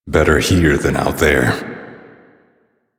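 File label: Citizen Male, English; Warframe; Mall; Citizens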